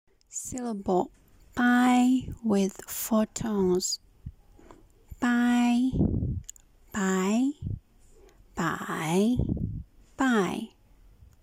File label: bai with 4 tones, Mandarin